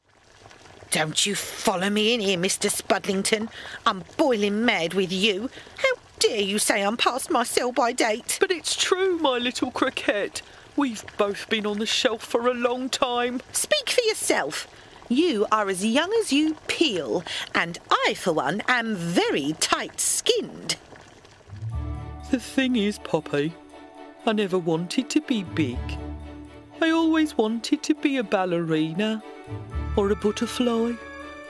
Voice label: Character

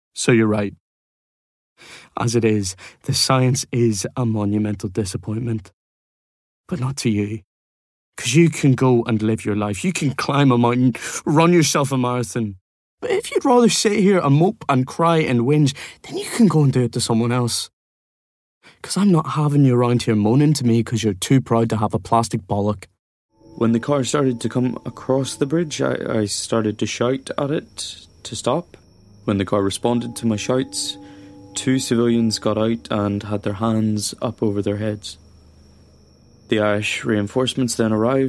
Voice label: voice acting

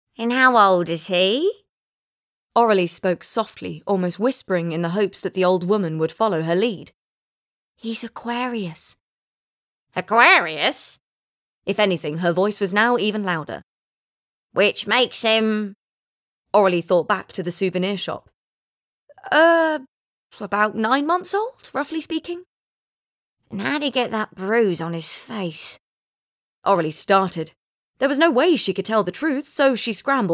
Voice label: Audiobook

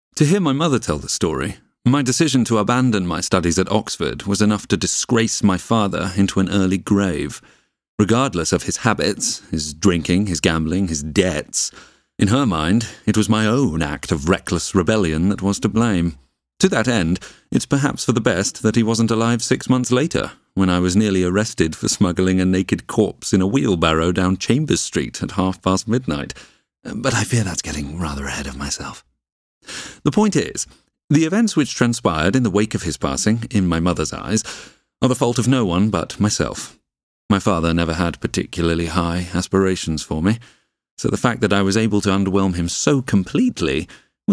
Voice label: Audiobook

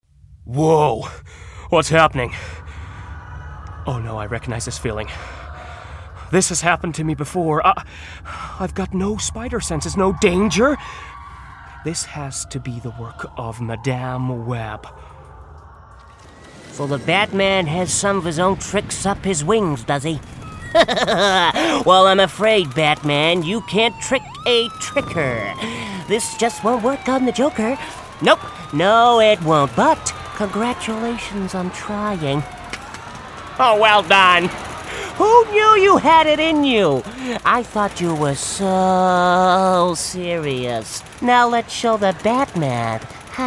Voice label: character

